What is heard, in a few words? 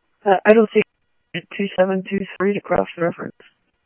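police department, scanner audio trunk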